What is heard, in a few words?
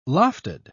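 "lofted", British English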